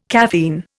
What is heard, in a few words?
"caffeine", Indian